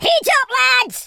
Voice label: "incoming", Scotsman; speech